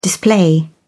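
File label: "display", British English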